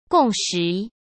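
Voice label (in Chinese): "共识", Mandarin Chinese; chinese-flashcards; hsk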